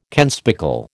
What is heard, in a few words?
"kenspeckle", American